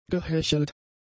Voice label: "gehechelt", German; adjectives